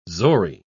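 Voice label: "zori", British English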